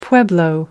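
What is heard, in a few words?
"pueblo", British English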